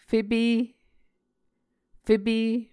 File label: "fepe", muscogee